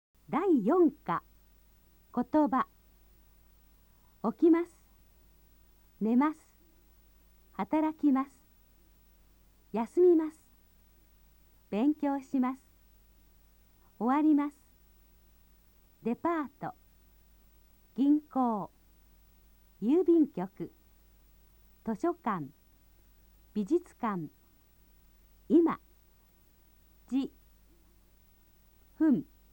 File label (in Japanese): nihongo vokabeln